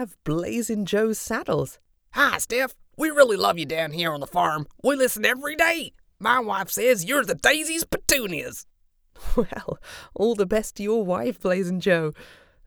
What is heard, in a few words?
HOME STUDIO Radio Show